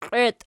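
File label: "qieiti" nominative, Archi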